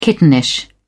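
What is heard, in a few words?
"kittenish", British English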